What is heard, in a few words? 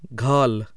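voiced aspirated velar, hindi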